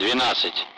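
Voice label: Speech, Russian, Numbers, 12; Allied Flight; DCS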